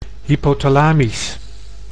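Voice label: "hypothalamisch", Dutch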